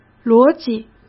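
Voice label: "逻辑", Mandarin Chinese; chinese-flashcards; hsk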